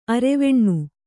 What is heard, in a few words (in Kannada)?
"ಅರೆವೆಣ್ಣು", Kannada